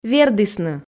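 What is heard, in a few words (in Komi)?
"вердісны", Komi, female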